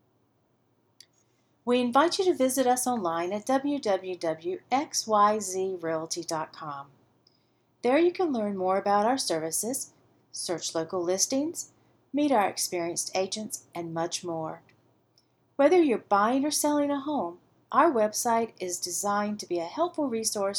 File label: Real Estate Recordings, voice over; XYZ Realty